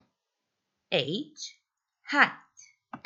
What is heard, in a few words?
h sound